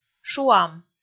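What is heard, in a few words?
"шуам", Komi, female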